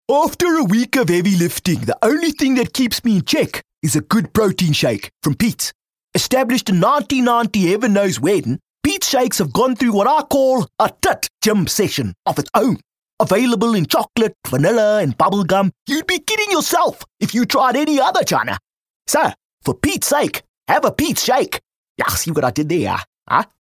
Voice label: English Character; OSM Talent